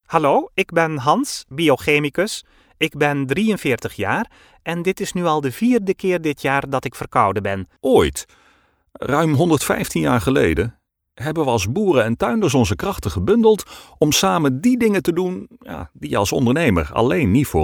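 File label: Dutch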